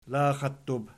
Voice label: "laxattub", Archi

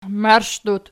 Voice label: "marshdut", Archi

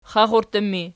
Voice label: xaxurttu erg, archi

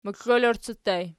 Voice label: "moxlolorci", Archi

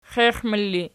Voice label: xiexmul erg, archi

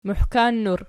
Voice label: "muhkannur", Archi

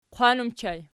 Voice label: "qwan", Archi

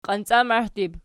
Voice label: "qiancamahdib", Archi

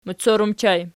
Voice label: "moccor", Archi

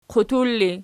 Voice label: "qutur", Archi

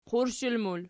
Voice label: "qurshi", Archi

